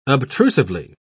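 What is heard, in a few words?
"obtrusively", British English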